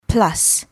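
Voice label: "plus", English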